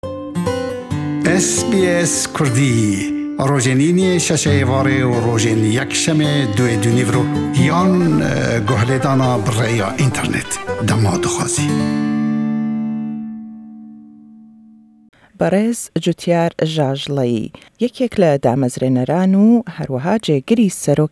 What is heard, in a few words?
kurdish; PAK rûberûy dijwarî zor debinewe bo parastinî jiyanî ajellan le Kurdistan; SBS Kurdish